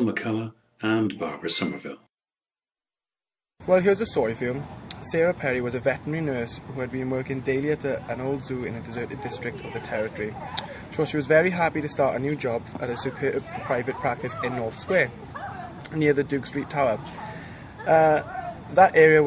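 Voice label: wales, International Dialects of English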